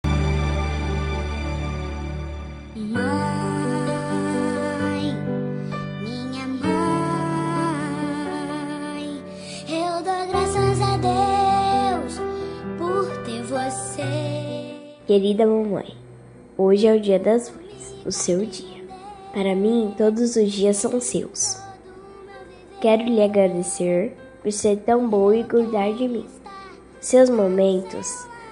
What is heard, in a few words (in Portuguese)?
voz inf fem mae; dia das maes para minha mae voz feminina infantil